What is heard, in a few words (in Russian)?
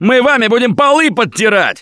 Taunt, left4dead2 russian, Survivor Voice